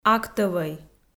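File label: "актӧвӧй", Komi, female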